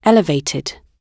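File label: "elevated", British English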